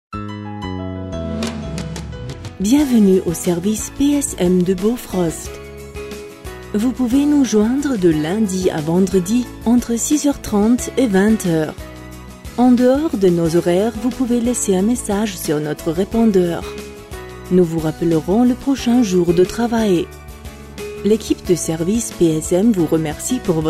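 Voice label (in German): Anrufbeantworter french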